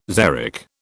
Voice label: "xeric", British

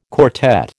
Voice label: "quartet", American

